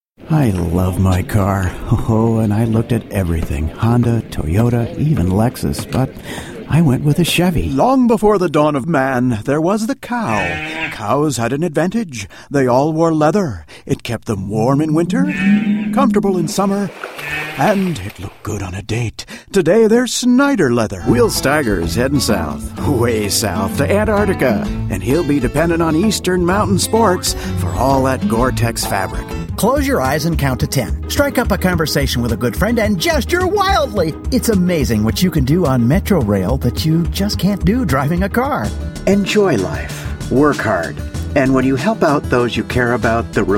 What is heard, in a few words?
Commercial